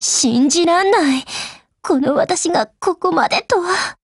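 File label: BREAK Japanese, Voice; GF Alarm; EVO3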